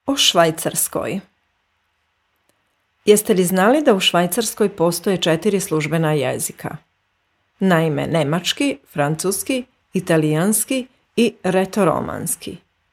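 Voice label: Serbian; schweiz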